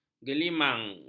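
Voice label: "gelimang", Malay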